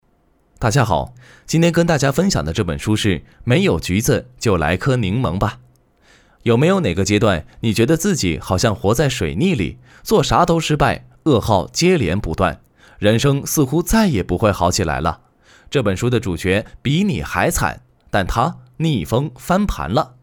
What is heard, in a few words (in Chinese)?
有声书配音